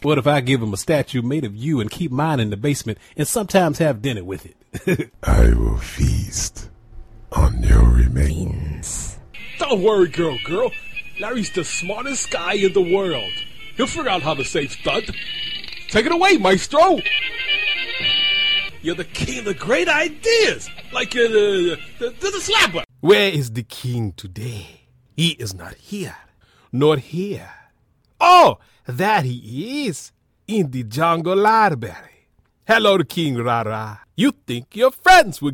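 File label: US, voice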